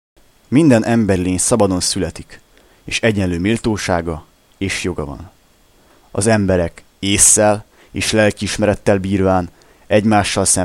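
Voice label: Hungarian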